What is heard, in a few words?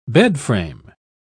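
"bedframe", English, British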